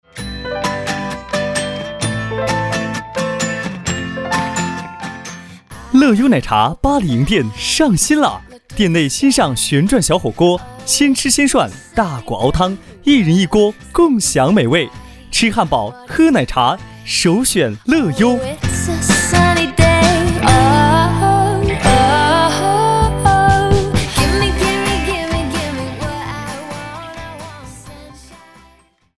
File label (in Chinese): 奶茶店欢快阳光